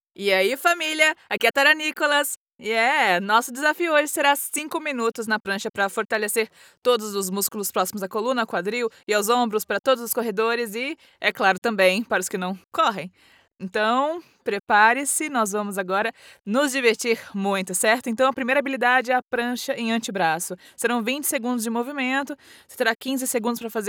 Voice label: Workout Energetic Casual Strong Carioca Dubbing Brazilian Portuguese; Voice; Nike